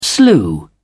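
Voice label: "slew", British English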